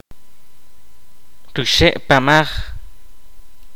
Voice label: "vientre", Kaqchikel

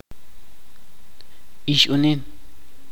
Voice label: "chica", Chuj

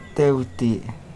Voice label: Nawat palabras polvo; pipil

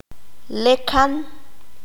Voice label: "estar de pie", Q'anjob'al

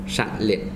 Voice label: Zapoteco vientre; miahuatec

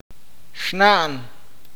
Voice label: "mujer", awakateko

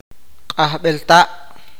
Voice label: tio el hermano menor de su madre, awakateko

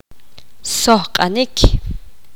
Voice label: poqomam apu alar

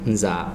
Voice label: Zapoteco oido; miahuatec

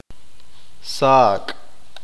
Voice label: "saltamontes", qeqchi

